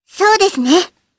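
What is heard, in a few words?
Vox, voices, Japanese; Hanus; kingsraid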